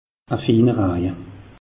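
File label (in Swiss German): "finerraje", Alsatian; meteo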